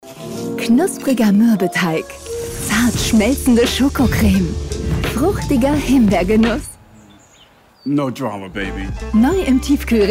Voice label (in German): happy; RUF Happy Cake; tv spots